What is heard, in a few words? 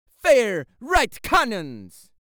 Voices Jamaican Fire Right Cannons